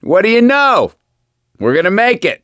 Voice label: hurrah, survivor voice; gambler